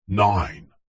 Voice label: radio production voice male processed deep says 1

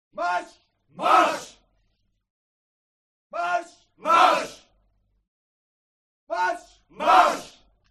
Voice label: CROWD Military Shout German "March"; HUMAN; smartsound

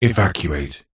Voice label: science fiction computer voice male says evacuate; zapsplat

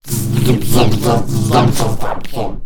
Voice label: Alien Scientist; little robot sound factory

